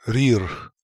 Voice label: "Rirkh", pronounciations; Fourth Way; Beelzebub